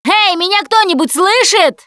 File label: lost call, russian, Survivor Voice Producer